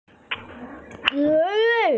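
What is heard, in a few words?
"ze ren" Chinese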